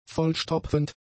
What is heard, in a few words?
"vollstopfend", German; adjectives